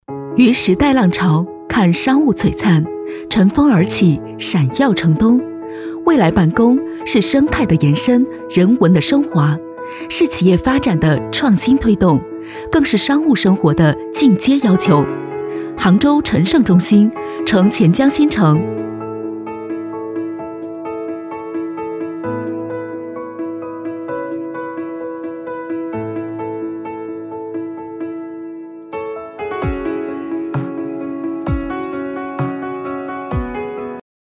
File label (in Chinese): 科技感